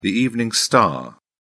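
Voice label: "evening star", British English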